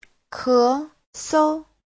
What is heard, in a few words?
"ke2 sou5", chinese; Giáo trình Hán ngữ